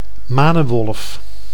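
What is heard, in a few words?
"manenwolf", Dutch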